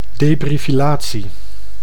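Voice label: "debrifillatie", Dutch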